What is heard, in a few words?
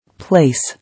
"Place", English